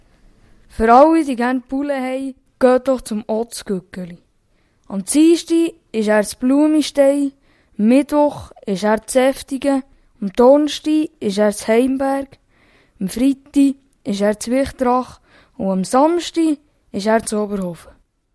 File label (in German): jingle gueggeli; otths gueggeli